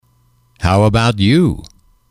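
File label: how about you, English, female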